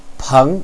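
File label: "peng", Chinese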